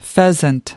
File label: "pheasant", us